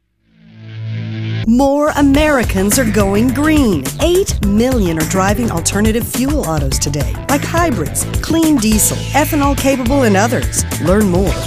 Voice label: English, english females